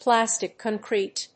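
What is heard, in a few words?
"plastic concrete", English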